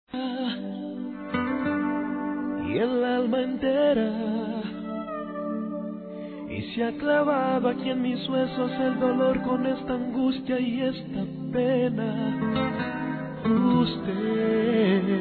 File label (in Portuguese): QUERO TE CONHECER MELHOR, masc; Telemensagens; Pedidos